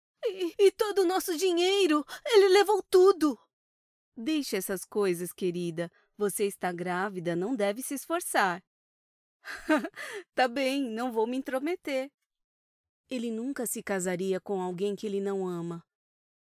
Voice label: Adult Dubbing PT-BR

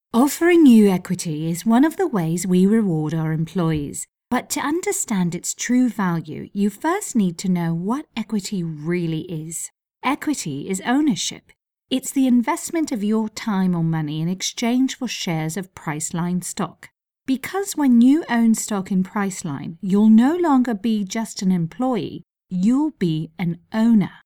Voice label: British HR Sample

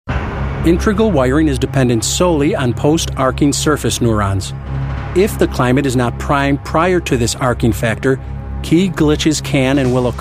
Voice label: narrative

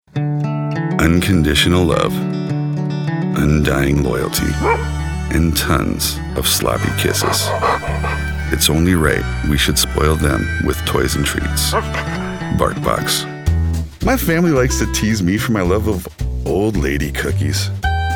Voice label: Commerical

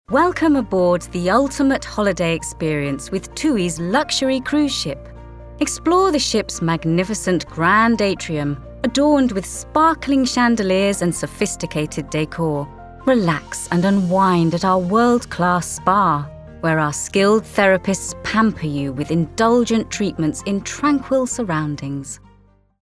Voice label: Narration HOLIDAY VIDEO

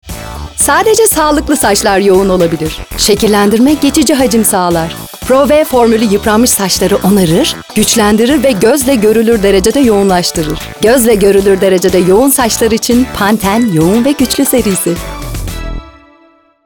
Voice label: Commercial reel Turkish; Pantene

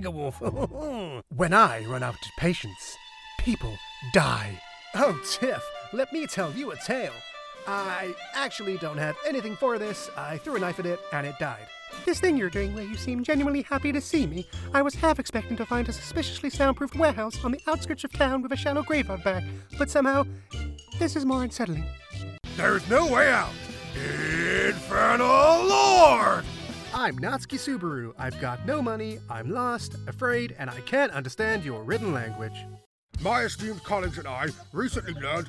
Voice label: animation

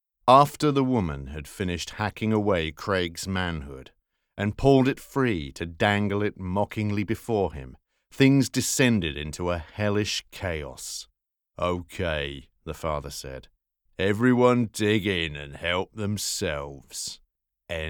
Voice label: Horror Reel Modern and Classic

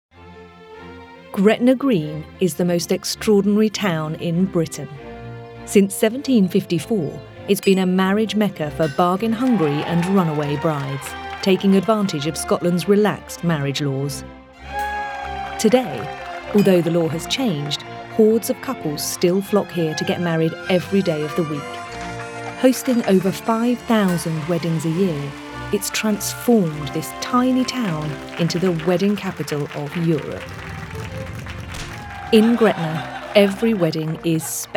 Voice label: Documentary Narration

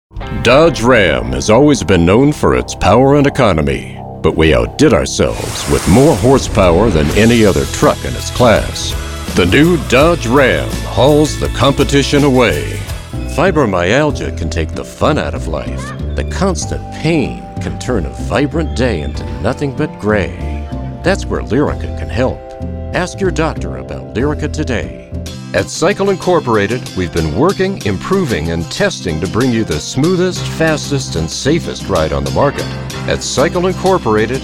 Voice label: COMMERCIAL DEMO

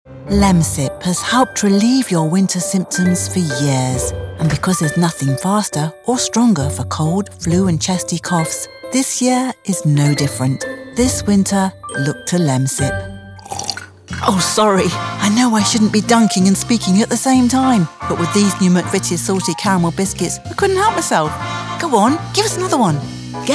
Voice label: Commercial

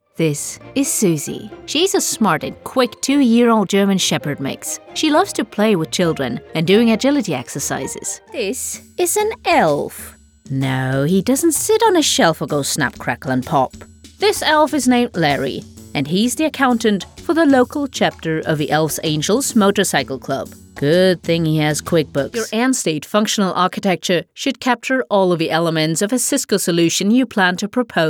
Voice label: English

